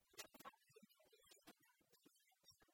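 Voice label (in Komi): кутшӧм факультетын институтын тэ велӧдчан, Komi, female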